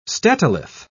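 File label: "statolith", British English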